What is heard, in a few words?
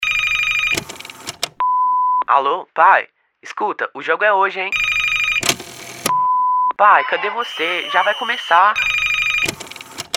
spot